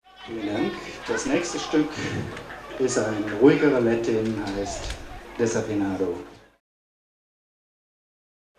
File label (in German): Ansage